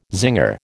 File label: "zinger", American